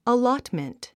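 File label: "allotment", English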